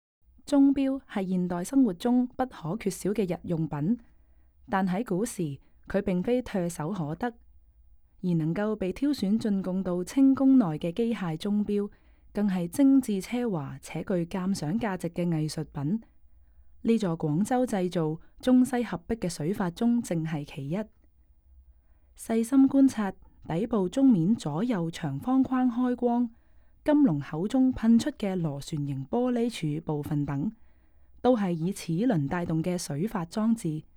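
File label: Cantonese, audio guide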